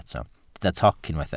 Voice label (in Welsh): "toc", Welsh